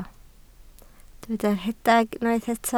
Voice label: "rhedeg", Welsh

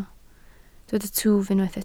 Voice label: "twf", Welsh

